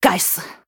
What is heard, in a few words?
Oops, zh